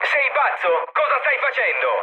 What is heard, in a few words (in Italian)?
player killed ally, mood low, speech it